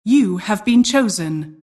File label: announcer draft